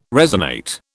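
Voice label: "resonate", British English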